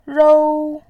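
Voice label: "rou", Mandarin Chinese, first tone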